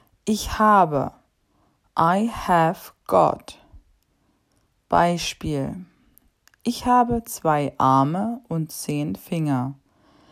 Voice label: Vokabeln Body Parts